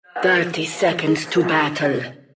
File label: announcer count battle 30; diretide 2012